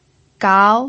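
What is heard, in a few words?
"gao", Mandarin Chinese, second tone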